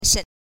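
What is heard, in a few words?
"shen5", Chinese; 陶冶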